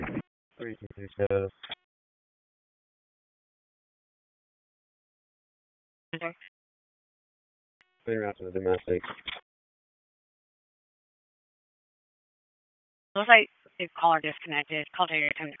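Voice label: Police Northeast District Dispatch, p25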